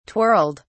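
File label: "twirled", English, British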